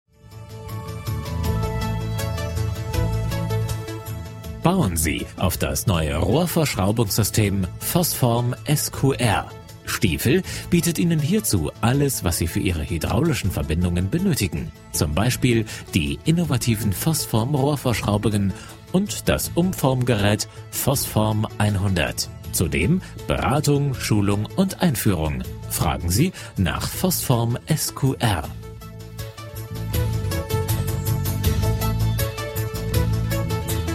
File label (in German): Werbespot